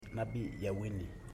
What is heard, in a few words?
"twenthythree", Ipili